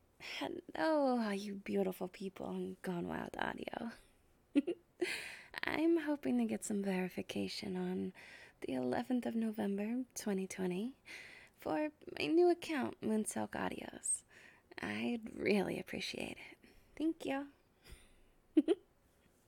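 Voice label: Verification, Female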